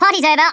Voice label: first blood, Stiff Upper Lip

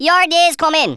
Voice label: "just you wait", Rasta

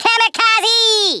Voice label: KAMIKAZE, Australian; Worms Armageddon

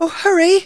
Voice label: "hurry", Speech; Worms Armageddon

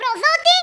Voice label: traitor, Speech, Greek; Worms Armageddon

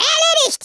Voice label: FATALITY, Speech, German; Worms Armageddon

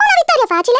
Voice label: FLAWLESS, Speech Italian; Worms Armageddon

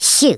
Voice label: oh dear, Redneck

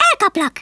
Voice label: "I'll get you", Hungarian; Worms Armageddon

